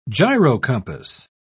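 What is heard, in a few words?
"gyrocompass", British English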